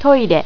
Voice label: "toire", japanese

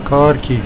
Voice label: "kaartjie", Afrikaans

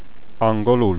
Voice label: "english", hungarian

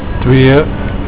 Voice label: "twee", Afrikaans

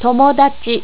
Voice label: "tomodachi", japanese

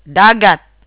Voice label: "ocean", Tagalog; Dagat; word of day

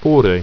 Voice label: "fore", ido

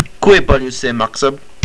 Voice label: How much, estonian

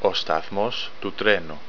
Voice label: Train station, greek

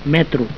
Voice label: "metro", catalan

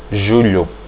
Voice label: july, portuguese

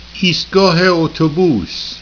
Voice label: "Ist'gah Au'to'bus", Farsi; Bus station

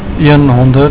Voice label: "honderd", afrikaans

